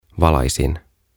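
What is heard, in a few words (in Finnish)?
"Valaisin", suomeksi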